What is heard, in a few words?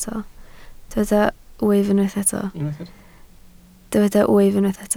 "wyf", Welsh